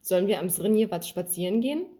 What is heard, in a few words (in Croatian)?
njemački; POP UP Zagreb